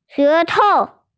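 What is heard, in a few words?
"tongue", Mandarin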